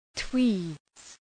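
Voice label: "tweeds", English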